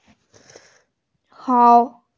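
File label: "hao3", Mandarin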